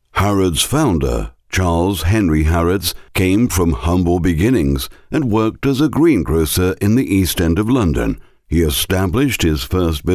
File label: documentary uk voiceover